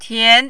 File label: "tian2", Mandarin